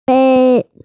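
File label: "bei1", Mandarin Chinese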